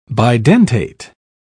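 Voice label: "bidentate", English, British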